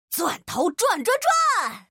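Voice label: Chinese; 探奇（守望先锋2）; 终极技能; 钻头转转转！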